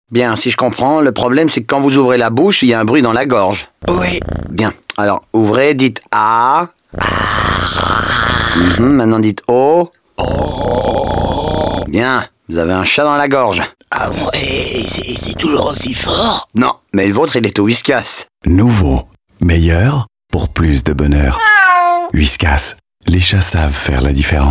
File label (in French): radio pub spot